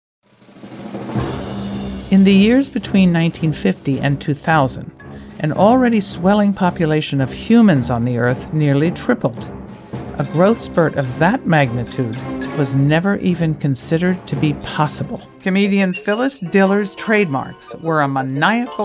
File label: Narrations